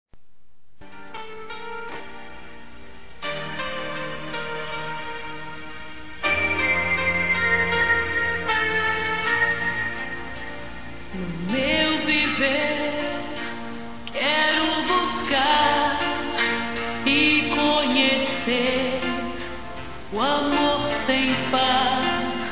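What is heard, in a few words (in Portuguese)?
ROMANTICA SUAVE, feminino; Telemensagens; Telemensagens 2017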